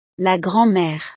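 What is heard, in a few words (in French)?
"la grand mere", French; familia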